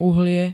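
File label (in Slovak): "uhlie", Slovak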